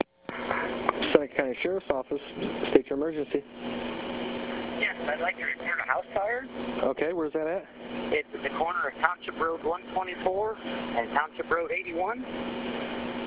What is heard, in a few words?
Fire 911 call